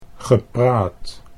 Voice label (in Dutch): "gepraat", Dutch